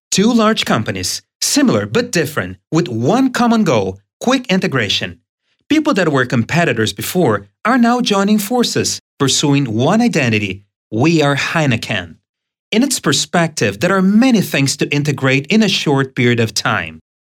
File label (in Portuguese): Inglês Americano Homem adulto